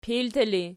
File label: "pilti", Archi